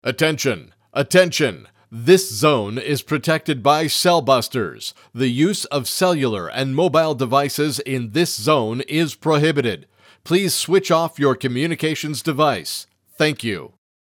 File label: Male; CellBusters